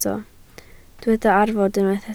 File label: "arfod", Welsh